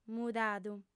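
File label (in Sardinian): "mudadu", Sardinian, female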